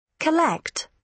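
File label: "collect", British English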